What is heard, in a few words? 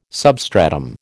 "substratum", American